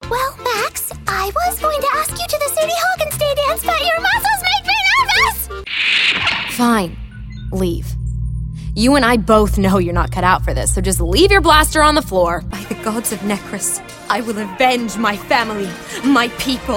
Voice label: animation; interactive